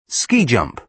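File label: "ski jump", British English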